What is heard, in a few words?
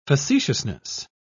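"facetiousness", British English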